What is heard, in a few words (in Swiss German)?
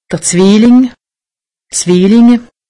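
"zwilling", Alsatian; medecine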